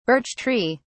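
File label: "birch tree", British English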